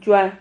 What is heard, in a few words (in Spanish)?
"yua", Silabas